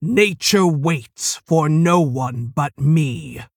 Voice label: Female Tauren Play